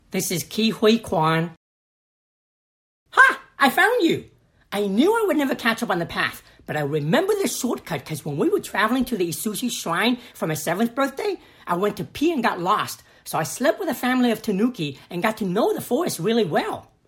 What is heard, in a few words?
animation; Innovative LA